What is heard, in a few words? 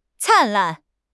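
"灿烂", Chinese; HSK6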